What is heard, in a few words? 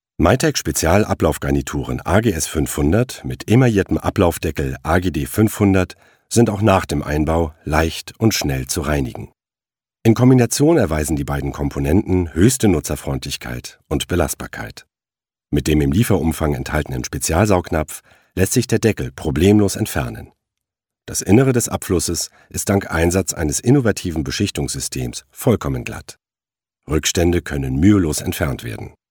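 German Imagefilm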